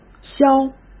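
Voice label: "消", Mandarin Chinese; chinese flashcards; hsk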